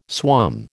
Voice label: "swum", American